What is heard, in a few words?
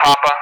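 "Papa", English; Alphabet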